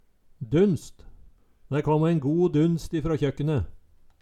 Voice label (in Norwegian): "dunst", Numedalsmål; Ordet er ubøyeleg. Duns er og bruka.